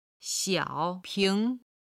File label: "xiao3ping2", chinese